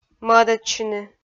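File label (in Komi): "мӧдӧдчыны", Komi, female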